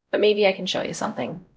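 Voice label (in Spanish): Voice Acting, es, female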